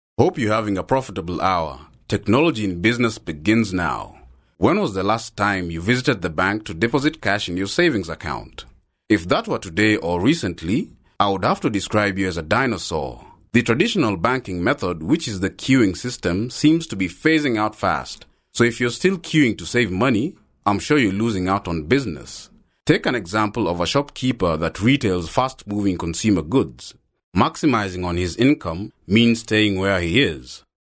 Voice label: male african voice over character, african english